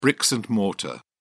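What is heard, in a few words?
"bricks and mortar", English, British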